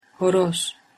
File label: "horoz", Turkish